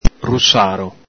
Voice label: "rusaro", Venetian